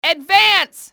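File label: advance, english, female; XBOX; enemy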